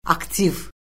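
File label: "aktiv", Azerbaijani; Orfoqrafiya Lüğəti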